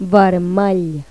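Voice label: "rojo", Spanish; Pipo-Aprende musica con Pipo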